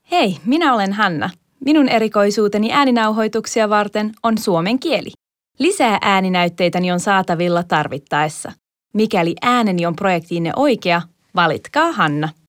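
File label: Finnish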